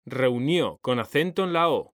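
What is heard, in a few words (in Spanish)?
"REUNIÓ", Spanish; mekanta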